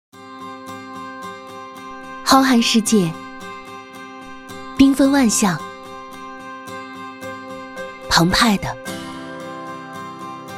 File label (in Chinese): 女 宣传 自然; 海南万象